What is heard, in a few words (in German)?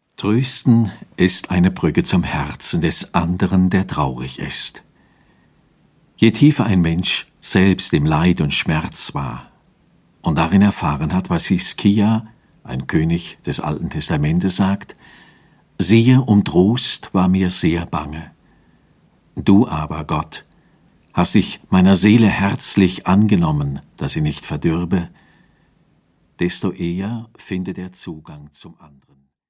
Lesung